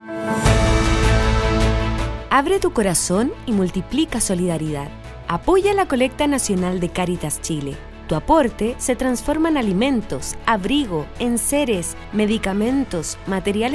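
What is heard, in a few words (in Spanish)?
Aviso Radial SPOT, radio spot; CARITAS; colecta nacional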